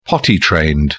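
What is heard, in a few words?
"potty-trained", British English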